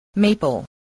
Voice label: "maple", spelling voice

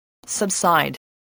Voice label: "subside", spelling

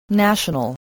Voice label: "national", voice

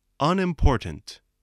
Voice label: "unimportant", English spelling voice

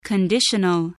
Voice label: "conditional", english

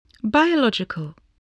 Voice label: "biological", spelling

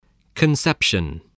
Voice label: "conception", english

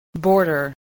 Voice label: "border", spelling

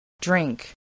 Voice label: "drink", English

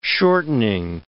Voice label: "shortening", words

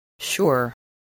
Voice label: "sure", English

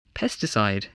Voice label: "pesticide", voice, English

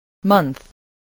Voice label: "month", voice, spelling

